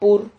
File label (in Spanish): "pur", Silabas